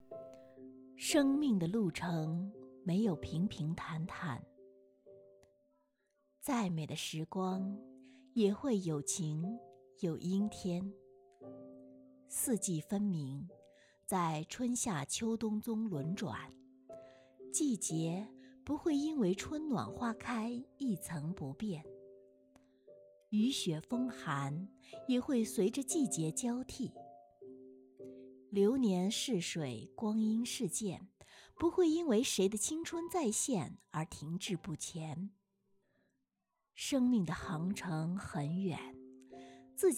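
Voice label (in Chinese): 经典现代散文, 普通话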